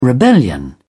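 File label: "rebellion", British English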